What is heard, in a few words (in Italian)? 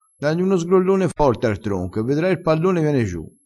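"sgrollone", vocabolario dialettale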